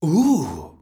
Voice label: mexican oh; netent